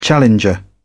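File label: "challenger", British English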